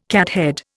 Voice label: "cathead", Indian